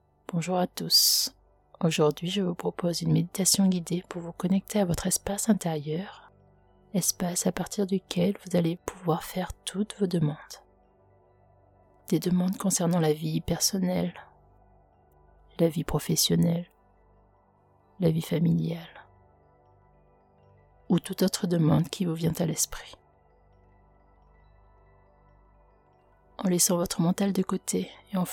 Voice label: meditation du soir; faites vos demandes

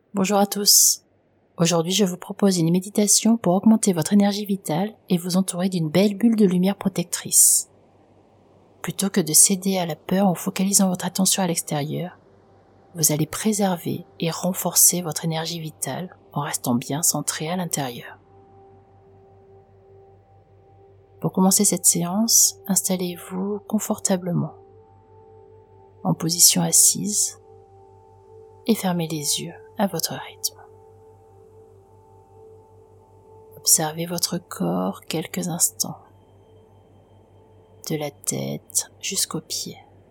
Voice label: meditation protection energetique

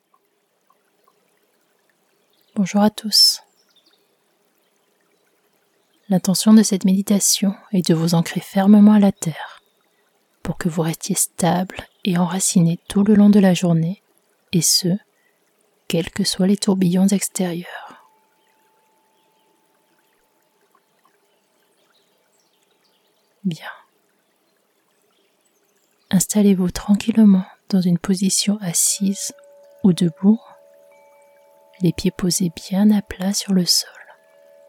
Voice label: meditation ancrage

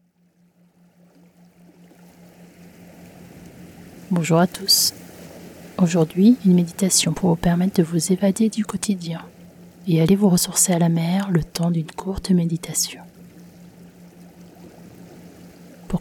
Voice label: meditation guidee rafraichissante; se ressourcer a la mer

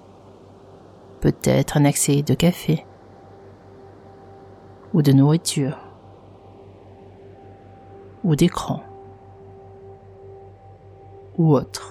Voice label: hypnose anti insomnie

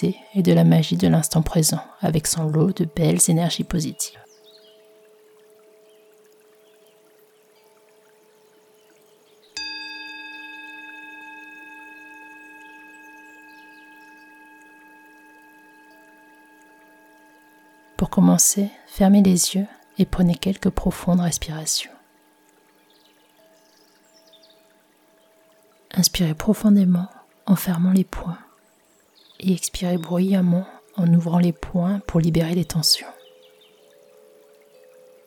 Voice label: Meditation guidee lacher prise

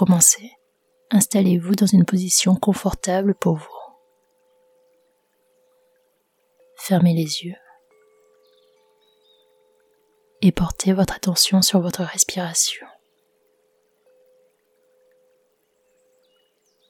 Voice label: extrait meditation express matin rayonner energies positives

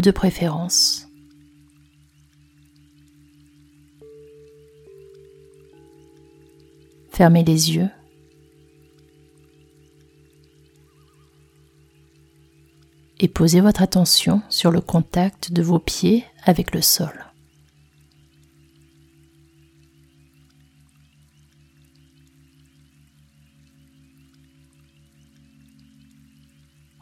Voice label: meditation active anti stress

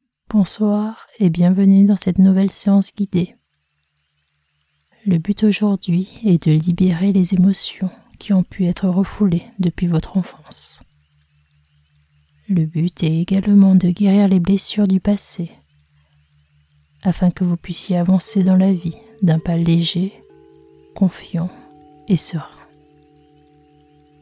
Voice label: hypnose dormir; guerir blessures passe

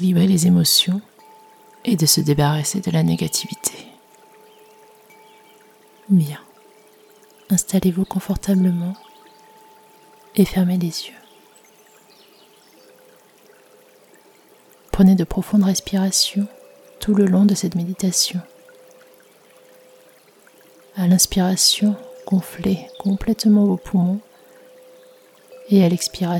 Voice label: meditation mantra OM

